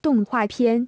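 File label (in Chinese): "动画片" Chinese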